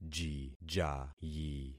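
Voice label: "jijayi", concatenated syllables; Affixing experiment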